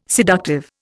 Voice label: "seductive", Indian